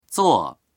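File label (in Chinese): "zuo" Chinese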